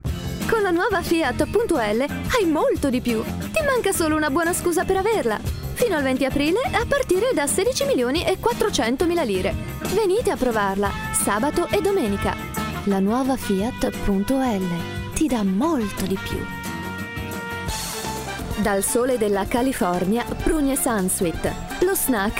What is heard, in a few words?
ITA